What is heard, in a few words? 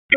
Raleigh Police Northeast District Tac, p25; wake